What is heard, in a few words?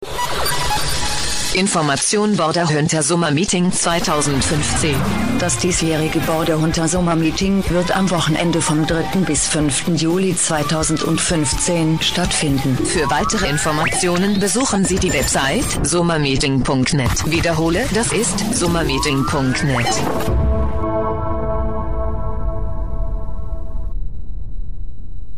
PROMO german; SUMMERMEETING 2015